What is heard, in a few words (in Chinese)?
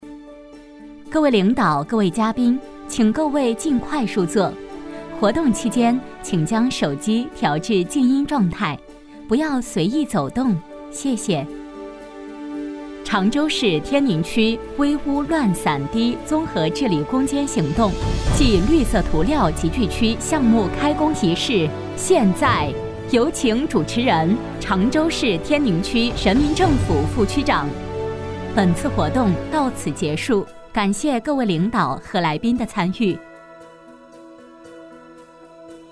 女 温馨提示 活动开场